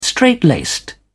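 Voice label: "strait-laced", British English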